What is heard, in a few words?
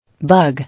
"Bug", English